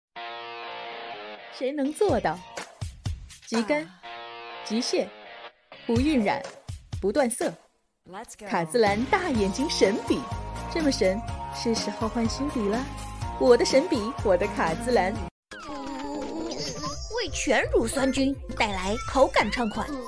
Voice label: 广告配音合集多风格

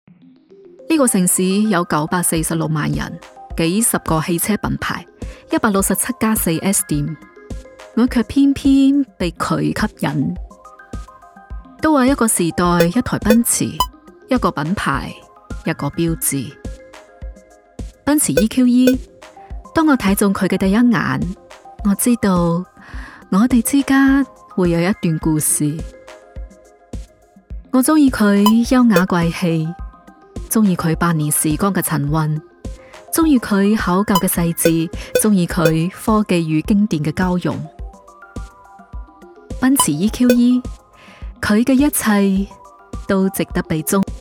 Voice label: 粤语独白; 奔驰

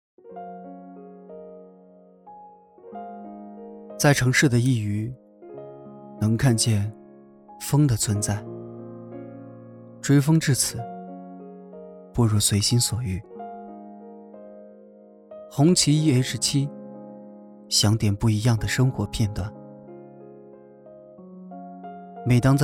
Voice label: 广告 走心; 红旗

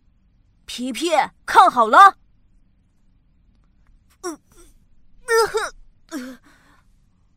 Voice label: 角色 男童 酷 低沉

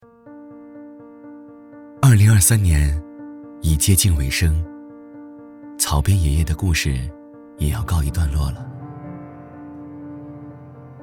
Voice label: 走心感人讲述; 草编爷爷

